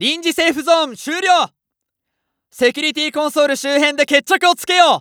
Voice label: Announce 2nd Safe Area Cancel N Ready, JPN; Eternal Return

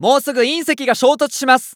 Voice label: Announce Meteor Prep, JPN; Eternal Return

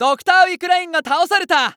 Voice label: Announce Wickeline Die, JPN; Eternal Return

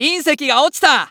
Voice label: Announce Meteor Appear; Eternal Return

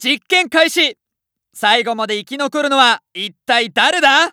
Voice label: Announce Start Game, JPN; Eternal Return

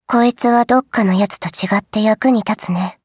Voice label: call Remote Drone, JPN; Eternal Return; Katja

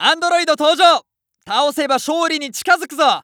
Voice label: Announce New Boss Appear, JPN; Eternal Return

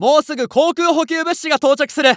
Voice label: Announce Air Supply Ready, JPN; Eternal Return

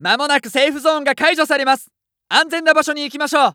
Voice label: Announce 2nd Safe Area Start, JPN; Eternal Return

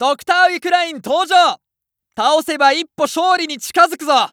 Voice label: Announce Wickeline Appear, JPN; Eternal Return

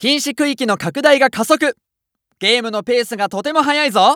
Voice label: Announce Restricted Accel, JPN; Eternal Return

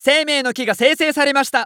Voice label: Announce Tree Of Life Appear, JPN; Eternal Return

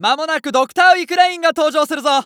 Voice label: Announce Wickeline Prep, JPN; Eternal Return

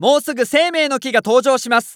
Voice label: Announce Tree Of Life Prep, JPN; Eternal Return

